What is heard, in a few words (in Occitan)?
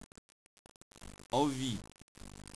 "avit", Gascon